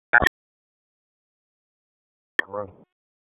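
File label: Police Southwest District Disp, radio; Raleigh; p25; wake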